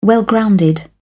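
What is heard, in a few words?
"well grounded", British English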